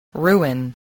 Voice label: "ruin", English spelling